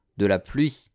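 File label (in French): "de la pluie", French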